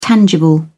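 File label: "tangible", British English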